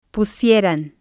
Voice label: "pusieran", Spanish